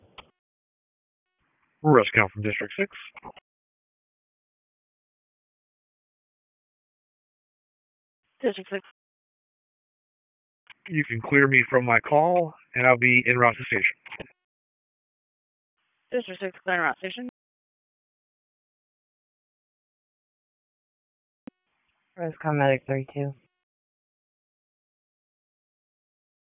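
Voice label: EMS Dispatch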